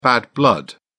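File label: "bad blood", British English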